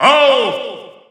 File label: English Announcer; Alph; SSB4-SSBU